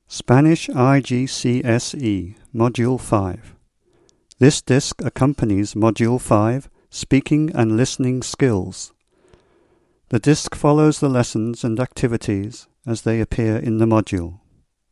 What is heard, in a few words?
Spanish